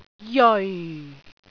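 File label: "jeoi4", Cantonese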